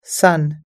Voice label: "sun", us english